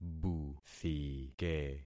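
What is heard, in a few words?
"buthike", concatenated syllables; Affixing experiment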